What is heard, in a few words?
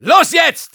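Soldier go, German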